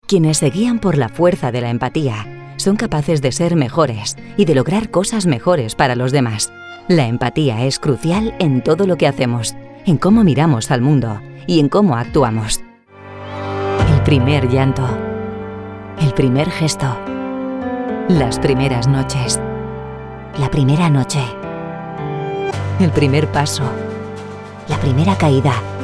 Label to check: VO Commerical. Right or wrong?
Wrong